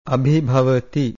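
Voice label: "abhibhavati", Pali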